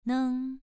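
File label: "neng", Mandarin Chinese syllable, second tone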